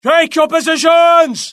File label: alert, UK; mohaa; dialogue